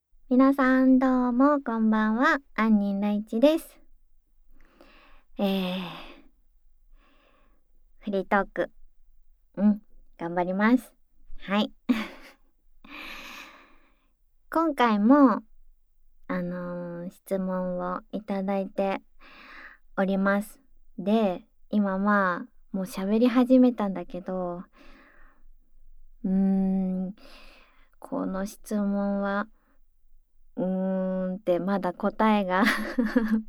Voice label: フリートーク

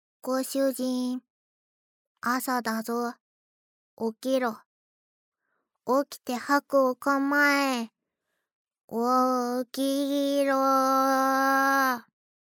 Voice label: シチュエーションボイス

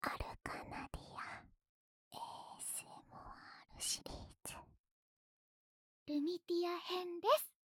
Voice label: タイトルコール

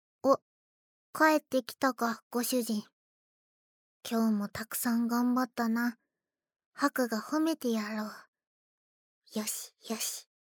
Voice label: シチュエーションボイス

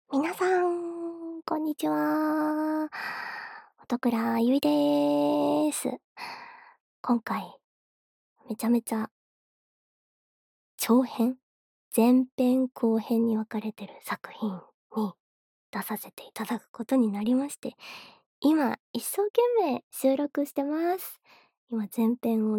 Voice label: フリートーク

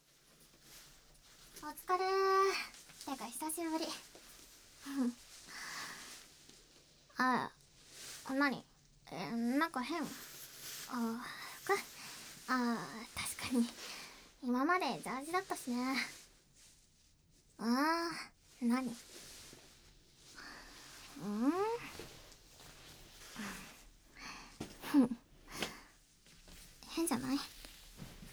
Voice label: キス／囁き／耳舐め, ASMR; 即おち！; 好きエロ／わんわん催眠／正常位／絶頂

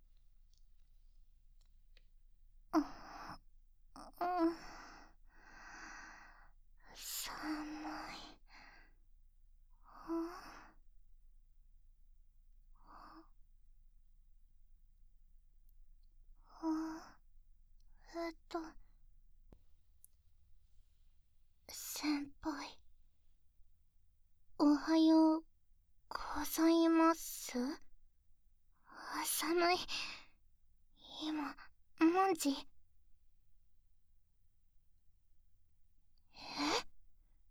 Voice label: ピロートーク, ASMR; ダメ男製造女; se無し

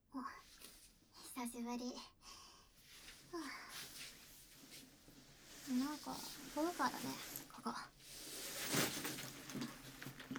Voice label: キス／囁き／耳舐め, ASMR; 即おち！; 手コキ／性感フィードバック／ベロチュー・お兄さん自身の手コキで春姫も絶頂／射精感覚共有; 液体音無し

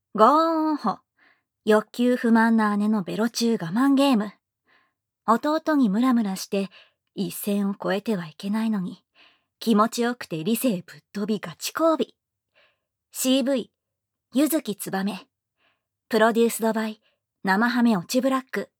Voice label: タイトルコール, ASMR

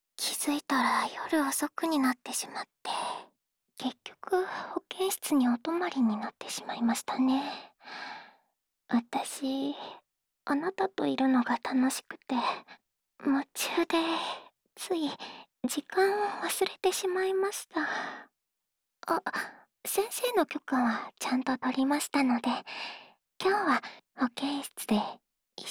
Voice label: 安眠ボイス＆寝息(右), ASMR

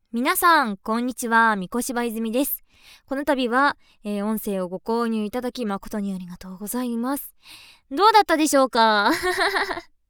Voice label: フリートーク

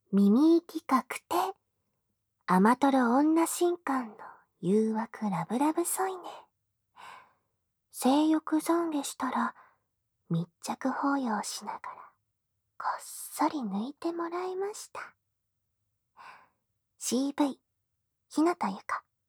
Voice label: タイトルコール, ASMR

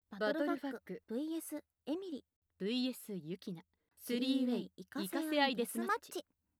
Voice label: タイトルコール

